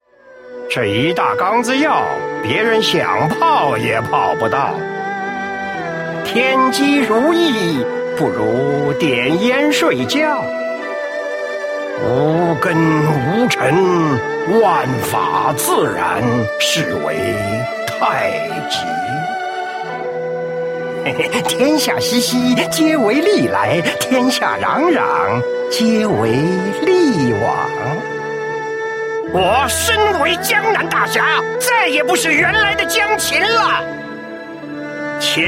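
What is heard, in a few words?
male middle-aged and elderly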